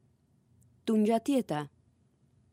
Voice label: albanian hello